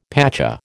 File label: "pacha", American